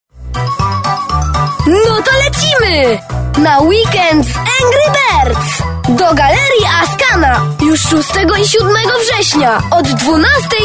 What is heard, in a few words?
COMMERCIALS